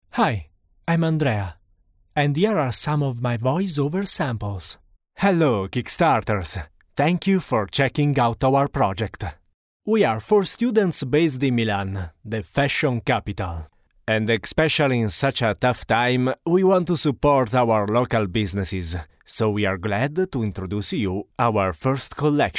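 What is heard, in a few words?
Italian, English, male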